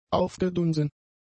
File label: "aufgedunsen", German; adjectives